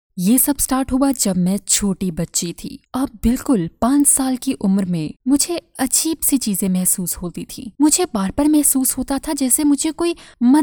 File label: urdu